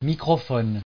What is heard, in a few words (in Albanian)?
"microphone", frengjisht